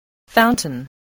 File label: "fountain", English voice, spelling